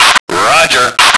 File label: male roger; lmctf